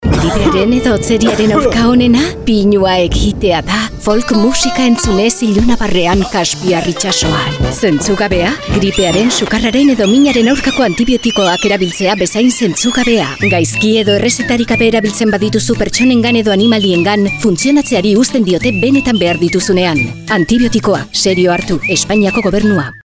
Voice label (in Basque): cuña eus